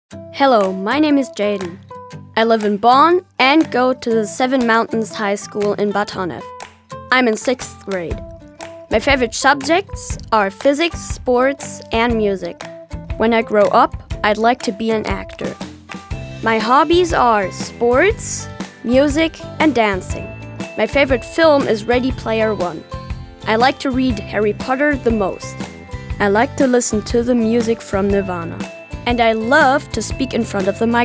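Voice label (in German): Selbstvorstellung, English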